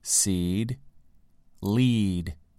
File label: "seed" "lead"